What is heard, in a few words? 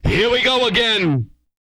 go again, Vocal, R&B Dance, hip-hop; TB HIP-HOP